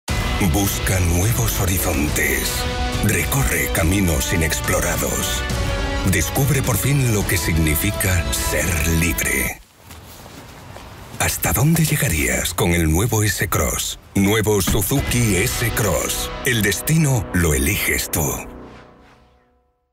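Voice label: spanish automotive, Male; professional Spanish voice actor since 1990; Dubbing actor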